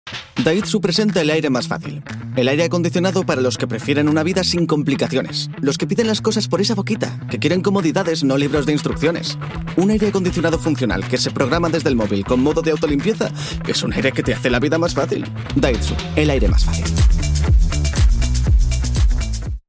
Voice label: spanish promos

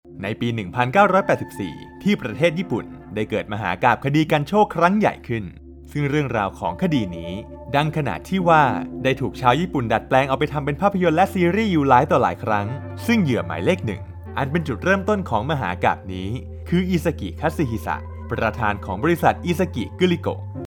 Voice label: thai documentaries

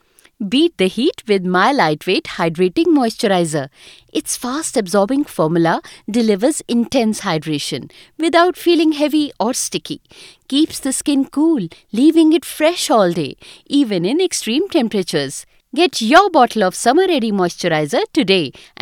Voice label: English Indian promos

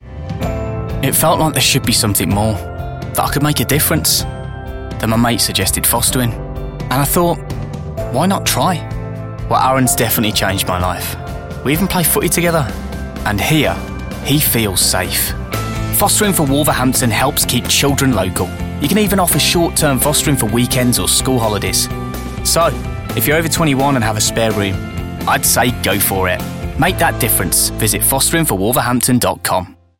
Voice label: english british

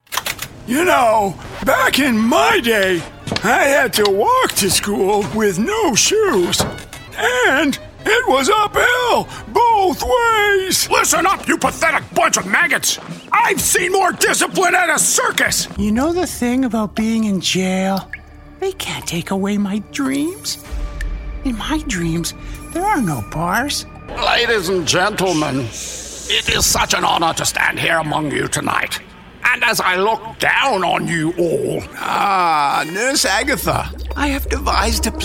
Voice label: english canadian animation

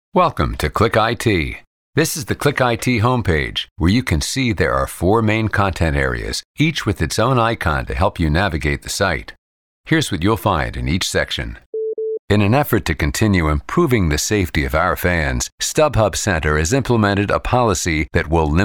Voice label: english american audio guides; North American English professional, working voice actor

